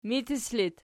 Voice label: "mitis", Archi